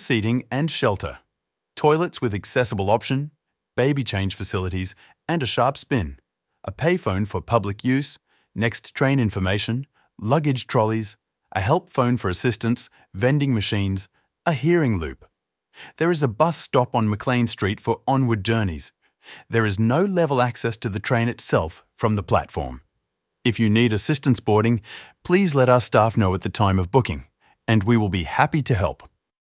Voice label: Station map audio description